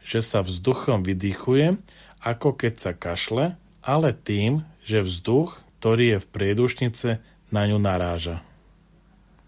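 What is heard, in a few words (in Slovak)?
zensky hlas voice over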